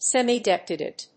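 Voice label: "semidetached", English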